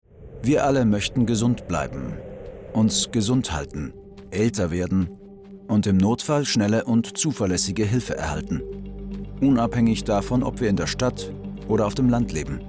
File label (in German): Imagefilm radiolog